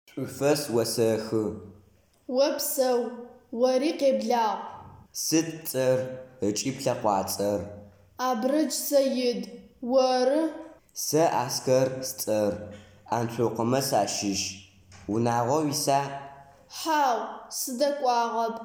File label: dialog